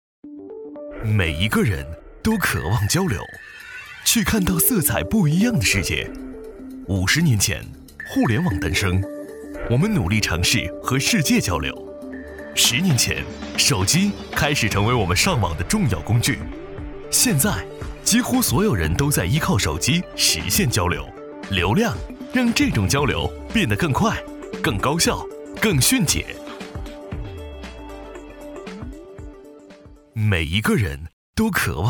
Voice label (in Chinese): 男 科技